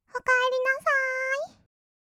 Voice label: "おかえりなさーい", kawaii voice; 挨拶

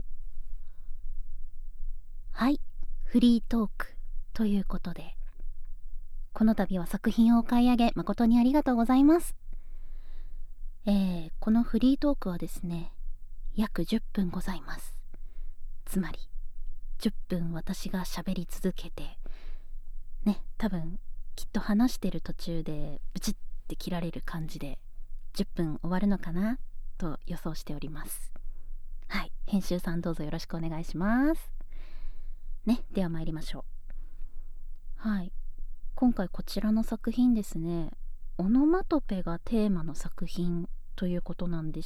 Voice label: フリートーク, ASMR

